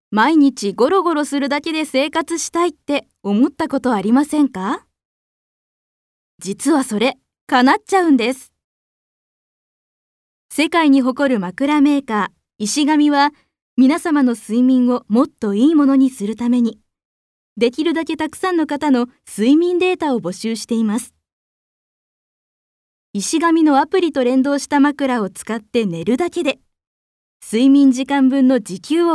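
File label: narration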